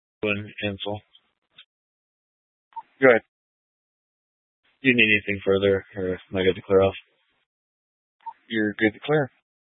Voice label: Police Dispatch; Police 1 Dispatch

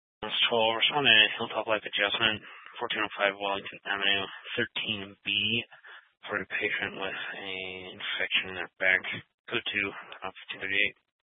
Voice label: Fire Department Dispatch; Grand Junction

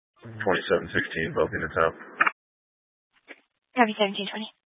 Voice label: Mesa County Sheriff Dispatch; scanner

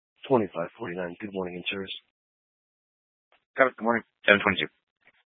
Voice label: Sheriff Dispatch, scanner; Mesa County

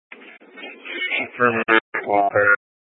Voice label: Police 1 Dispatch

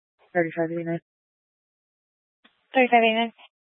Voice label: Police Department Dispatch, scanner

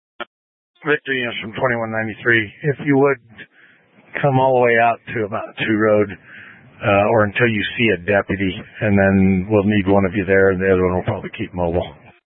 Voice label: Incident Tac, scanner

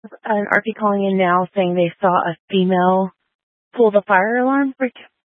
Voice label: Fire Department Dispatch; Grand Junction Fire Department Dispatch

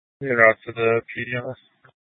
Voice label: Police Department Dispatch, scanner

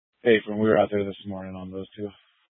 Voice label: Police Department Dispatch; Grand Junction

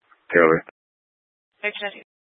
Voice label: Mesa County Sheriff Dispatch

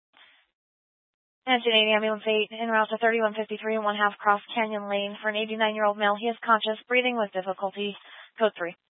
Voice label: Fire Department Dispatch; Grand Junction

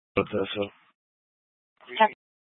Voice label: Mesa County Sheriff Dispatch

